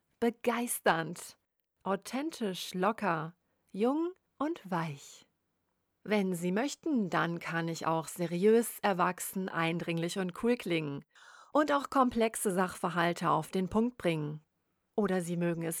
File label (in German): Ihre Stimme